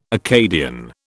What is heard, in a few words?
"Acadian", British